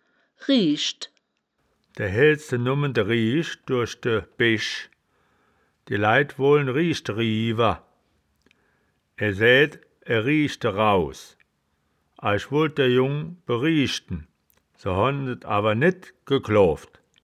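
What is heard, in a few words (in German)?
"rieschd", Wittlicher Wörterbuch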